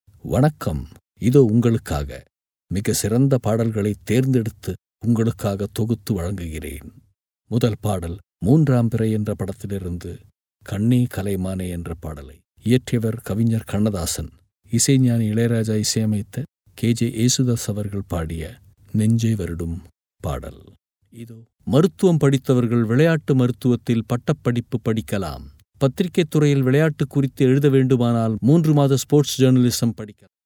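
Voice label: Tamil, Sri Lanka, male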